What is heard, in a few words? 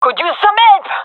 request help, gendarmerie fem; vo